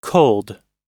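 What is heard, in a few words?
"cold", American English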